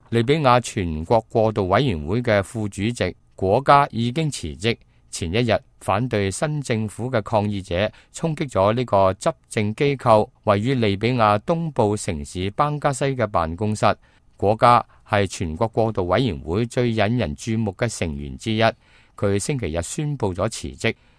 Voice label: cantonese, news